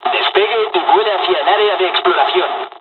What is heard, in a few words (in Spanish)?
fly, dialogs spanish; War Thunder